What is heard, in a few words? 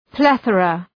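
"plethora", English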